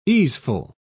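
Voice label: "easeful", British English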